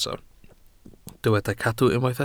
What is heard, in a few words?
"cadw", Welsh